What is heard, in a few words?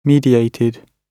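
"mediated", British English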